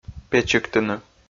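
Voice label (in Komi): "печиктыны", Komi, male; audio dict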